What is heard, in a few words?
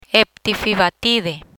"Eptifibatide", Spanish